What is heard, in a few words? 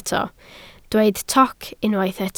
"toc", Welsh